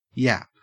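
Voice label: "yap", Australian English; pronunciations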